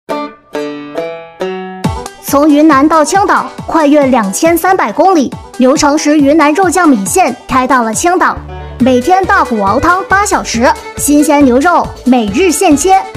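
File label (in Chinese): 女童声 开业; 牛诚实开业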